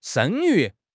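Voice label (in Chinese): "声誉", Chinese; HSK6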